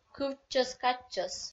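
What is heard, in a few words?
"кывтчӧс-катчӧс" Komi, female; kpv audio dict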